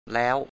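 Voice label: "แล้ว" Thai, male; 'already' or 'then'; læ:w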